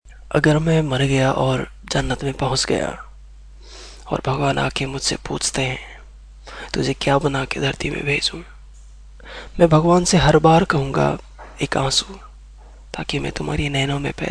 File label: Extremely Romantic Lines in Hindi